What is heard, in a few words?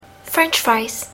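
"french fries", English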